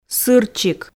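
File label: "сырчик", Komi, female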